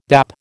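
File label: "dap", American English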